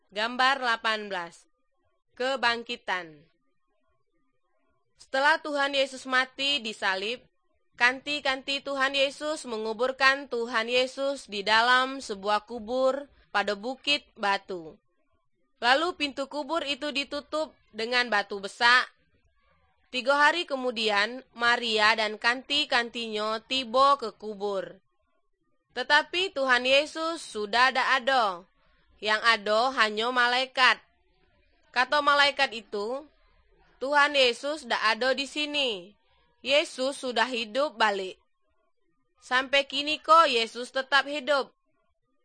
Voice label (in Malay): good news malay jambi